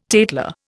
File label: "tatler", Indian